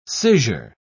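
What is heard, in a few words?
"scissure", British English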